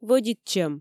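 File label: "вӧдитчӧм", Komi, female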